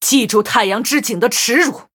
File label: GREETINGS, zh